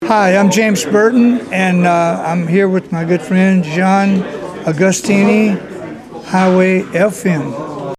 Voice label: liner